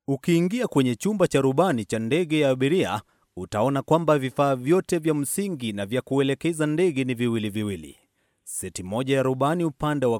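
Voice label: Swahili, male, explainer; voice-over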